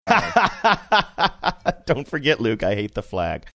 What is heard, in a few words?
laughing saying dont forget i hate the flag